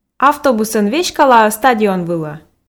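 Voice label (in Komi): автобусӧн веськала-ӧ стадион вылӧ, Komi, female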